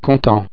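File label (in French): "content", French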